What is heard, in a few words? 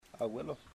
grandfather, chamacoco